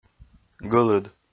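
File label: "гылыд", Komi-Zyrian, male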